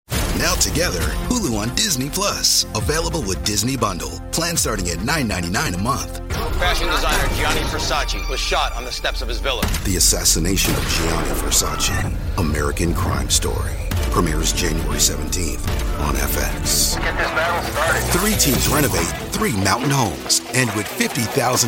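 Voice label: Promo, Professional Male Voice Over Talent; entertainment marketing and creative advert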